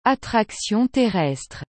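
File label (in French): "attraction terrestre", French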